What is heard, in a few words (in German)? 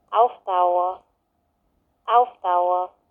Mundart; tannheimertal